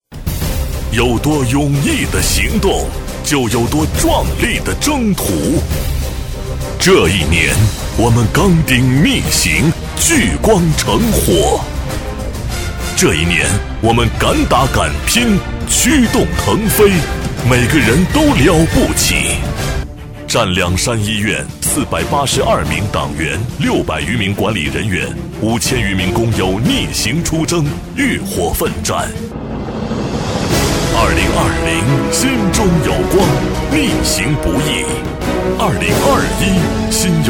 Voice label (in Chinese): 男 年终汇报 激情大气; 电视台声; 大气激昂